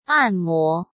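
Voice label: "按摩", Mandarin Chinese; hsk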